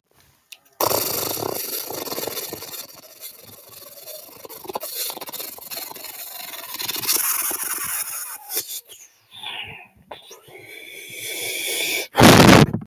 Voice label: voice message